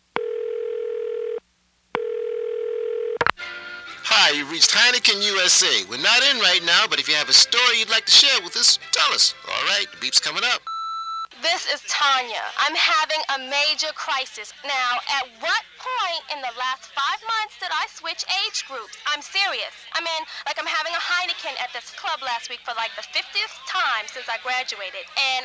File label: Voice Over